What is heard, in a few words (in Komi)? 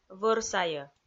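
"вӧр сайӧ", Komi, female; audio dict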